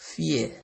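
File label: "fear", Nigerian Pidgin, male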